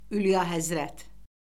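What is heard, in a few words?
"ülyahəzrət", Azerbaijani; Orfoqrafiya Lüğəti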